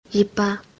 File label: "Yepa", Konyak; This